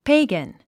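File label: "pagan", English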